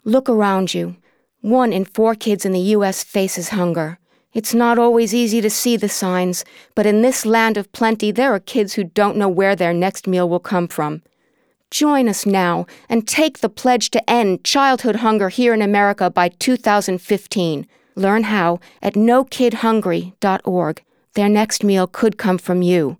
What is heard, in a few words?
concerned citizen